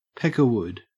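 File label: "peckerwood" English, Australian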